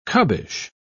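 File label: "cubbish", British English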